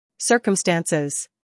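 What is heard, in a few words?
"humor", British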